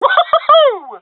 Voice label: cheer; vo; Counter Strike Model Pack; CS:GO

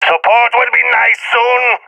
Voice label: request backup, balkan; vo; balkan epic

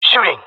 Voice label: attacking, jungle male; Counter Strike Model Pack; CS:GO

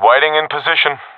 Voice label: in position, professional epic; vo; Counter Strike Model Pack; CS:GO

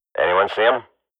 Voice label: radio bot query, swat; Counter Strike Model Pack; CS:GO

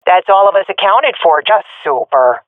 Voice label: round won no casualities, swat fem; vo; Counter Strike Model Pack; CS:GO

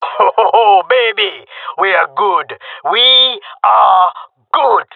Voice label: round winning, jungle male; player vo; Counter Strike Model Pack; CS:GO

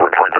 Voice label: retreat, combine officer vo; Half Life Alyx; Half Life Model Pack

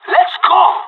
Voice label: radio lets go, pirate; Counter Strike Model Pack; CS:GO

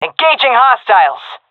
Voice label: attacking, seal fem; vo; Counter Strike Model Pack; CS:GO

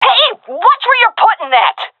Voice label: taking fire friendly, seal fem; Counter Strike Model Pack; CS:GO

